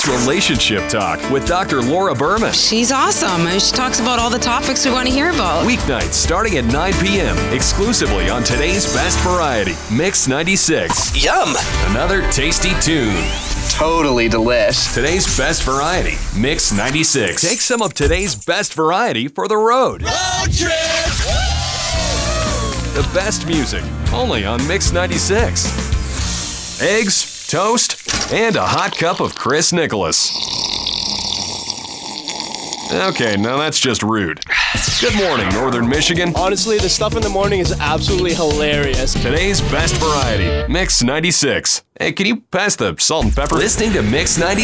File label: Adult Contemp Radio Imaging